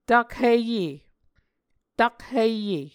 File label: "takhiye", Muscogee